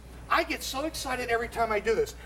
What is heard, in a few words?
excited